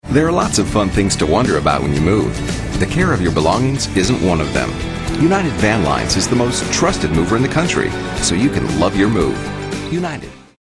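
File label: friendly, voice-over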